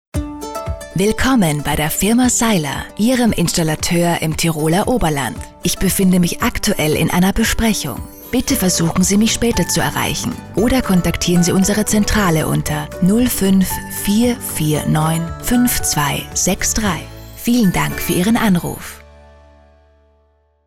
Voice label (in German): Besprechung, telefonansagen; telefonansagen sonstige